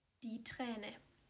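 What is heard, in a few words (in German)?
"Träne", German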